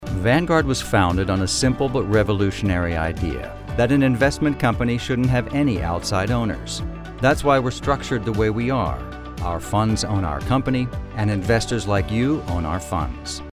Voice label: Narration; Vanguard